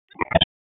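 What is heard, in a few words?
Sheriff's Office Dispatch; WSO DISP 1; wake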